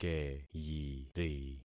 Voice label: "keyiri", concat syllables; Affixing experiment